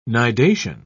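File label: "nidation", British English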